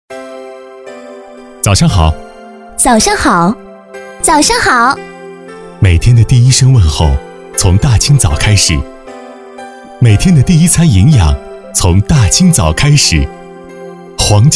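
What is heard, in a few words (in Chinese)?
国语 男声 专题 广告片（大气 时尚） 大气浑厚磁性 沉稳; 大清早早餐招商广告片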